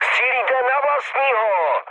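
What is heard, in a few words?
player friendly fire, Czech, mood med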